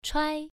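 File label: "chuai" Mandarin, first tone, pronunciation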